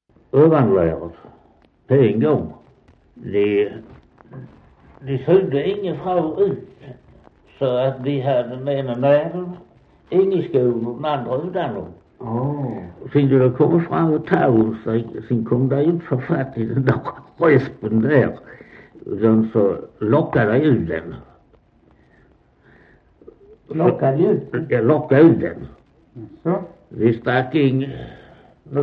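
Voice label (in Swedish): Västra Torup Skåne, dialekter; Folkminnen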